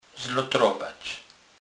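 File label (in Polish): "zlotrowac", Kurpie dialect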